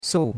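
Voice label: "sou4", Chinese; Cours de Chinois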